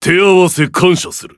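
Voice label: victory, Japanese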